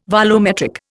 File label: "volumetric", Indian